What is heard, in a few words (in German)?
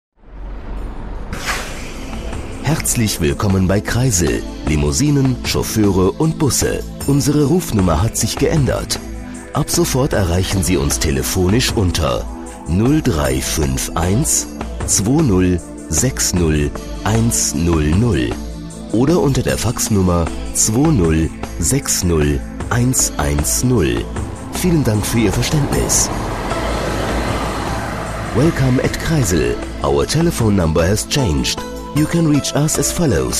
Sonderansage; Kreisel Limousinen